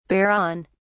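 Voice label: "bear on", English, British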